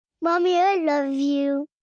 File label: Mommy I Love You, Endearment, 1 girl, 5 yrs; Talking Sound Effects; Sound Effects:Voice; VoiceBox